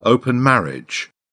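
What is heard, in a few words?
"open marriage", British English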